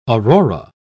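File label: "aurora", British English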